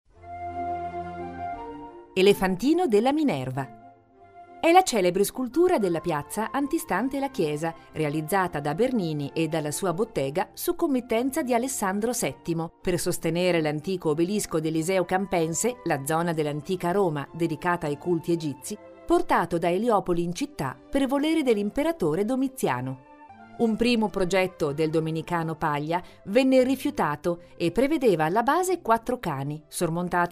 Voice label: audioguide

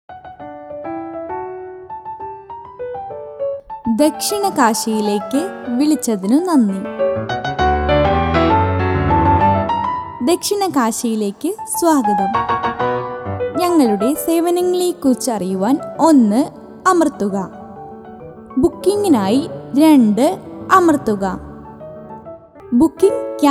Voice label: IVR

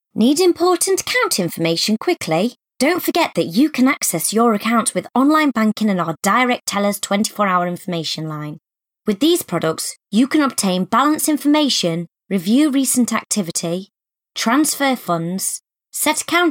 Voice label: ivr

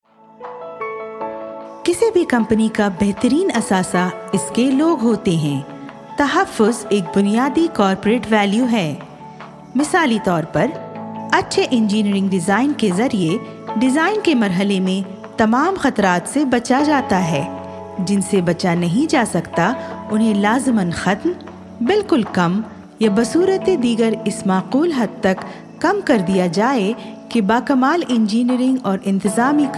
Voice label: urdu; PPE

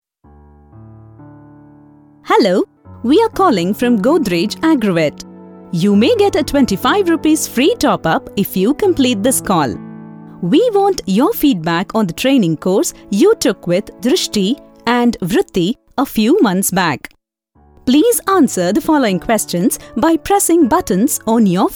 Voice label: IVR Eng

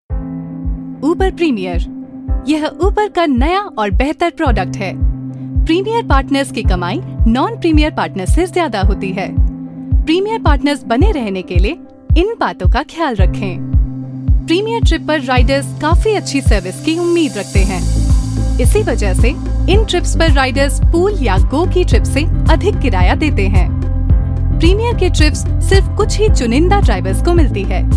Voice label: EXPLAINER VIDEO HINDI

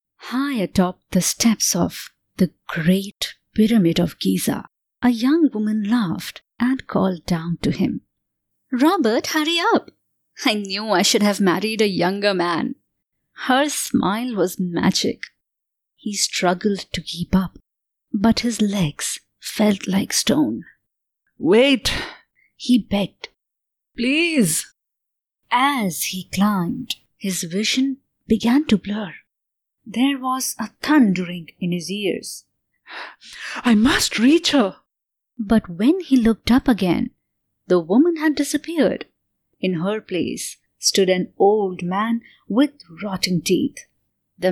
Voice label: English Audiobook

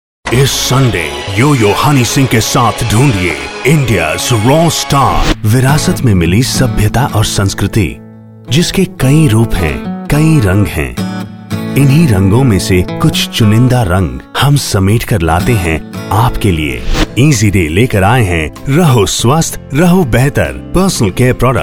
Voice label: TVC Radio Hindi

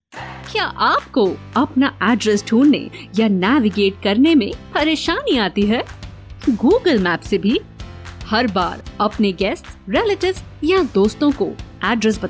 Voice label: Hindi Explainer

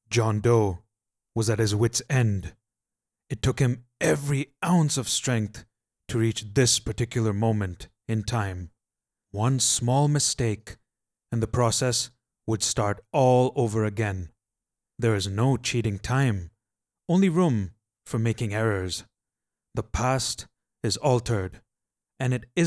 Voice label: audiobook english; ENGLISH Neutral

